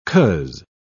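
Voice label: "coz", British English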